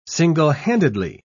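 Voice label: "single-handedly", British English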